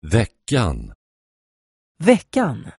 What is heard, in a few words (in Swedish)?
"veckan", swedish